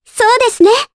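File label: voice Aff2, voices heroes, Japanese; Ophelia; kingsraid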